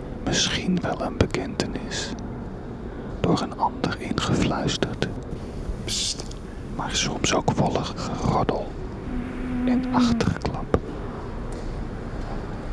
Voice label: whispering museum